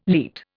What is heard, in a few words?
"leat", Indian